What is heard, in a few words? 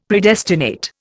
"Predestinate", Indian; Dictionary